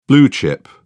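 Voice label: "blue chip", British English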